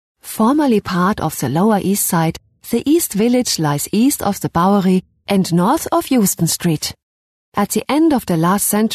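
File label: German, female, English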